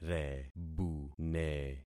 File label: "rebune", concat syllables; Affixing experiment